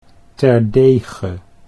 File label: "terdege", Dutch